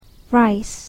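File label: "rice", English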